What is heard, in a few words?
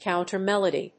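"counter melody", English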